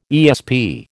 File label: "ESP", American